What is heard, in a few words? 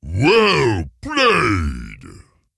Ogre Emote Well Played